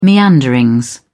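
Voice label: "meanderings", British English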